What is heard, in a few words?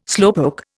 "slowpoke", Indian